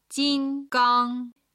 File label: "jingang", chinese